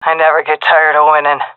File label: round won, seal fem; vo; Counter Strike Model Pack; CS:GO